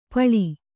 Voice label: "ಪಿಳ್ಳೆ", Kannada

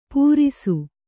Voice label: "ಪೂರಿಸು", Kannada

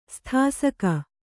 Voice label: "ಸ್ಥಾಸಕ", Kannada

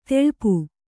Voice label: "ತೆಳ್ಪು", Kannada; teḷpu; noun; clarity; cleanliness; lucidity.

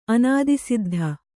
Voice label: "ಅನಾದಿಸಿದ್ಧ", Kannada; anādisiddha; noun; the Eternal Spirit.

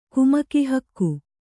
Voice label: "ಕುಮಕಿಹಕ್ಕು", kannada

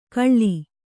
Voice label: "ಕಳ್ಳಿ", Kannada

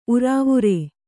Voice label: "ಉರಾವುರೇ", Kannada

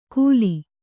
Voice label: "ಕುಲಿ", Kannada

